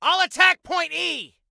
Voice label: ATTACK, Soldier Male